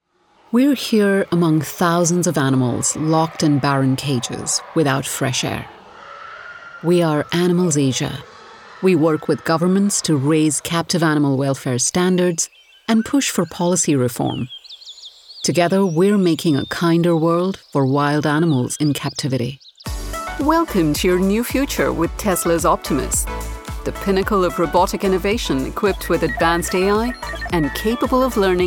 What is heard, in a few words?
Narration